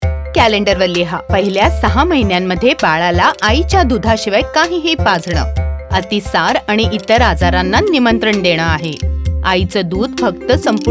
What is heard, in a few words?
Exclusive Breastfeeding Marathi, Audio Spot; poshan